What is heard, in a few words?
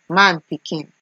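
"manpikin", Nigerian Pidgin, female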